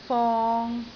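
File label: "fong3", Cantonese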